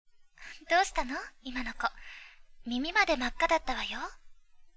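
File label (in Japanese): サンプルボイス, 25歳 花が好きな優しい女性; 晴香; 真弘の兄の婚約者、花が好きな優しい女性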